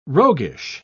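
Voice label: "roguish", English word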